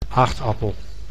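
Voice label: "aagtappel", Dutch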